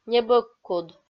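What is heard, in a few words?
"небӧгкуд", Komi-Zyrian, female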